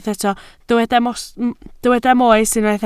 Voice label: "moes", Welsh